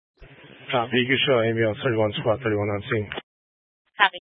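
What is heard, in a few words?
Fire Dispatch, Scanner audio recording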